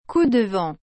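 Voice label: "coup de vent", French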